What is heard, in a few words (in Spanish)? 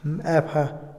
último, Otomí, hombre; INALI